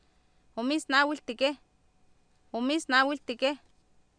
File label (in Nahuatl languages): "oomIisnAawIltikEh", Oapan, female; Oapan minimal pairs 2000